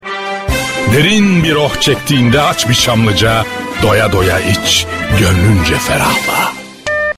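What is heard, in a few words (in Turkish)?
reklam kurumsal karizmatik, Turkish; camlica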